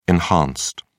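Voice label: "enhanced", British English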